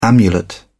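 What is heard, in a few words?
"amulet", British English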